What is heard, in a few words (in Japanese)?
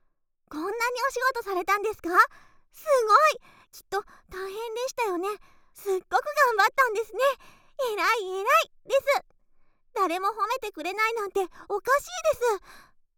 褒め お仕事してえらい！, ASMR